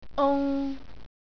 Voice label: "ung", Cantonese